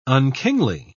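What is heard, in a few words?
"unkingly", English, British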